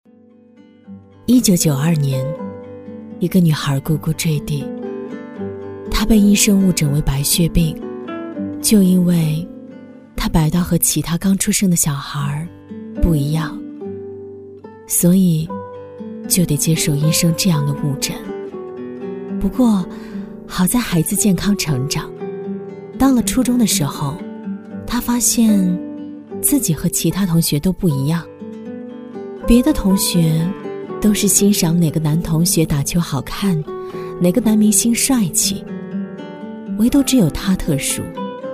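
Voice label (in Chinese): 女-人物讲解【温柔讲述】; 深情感人; 蕾丝女孩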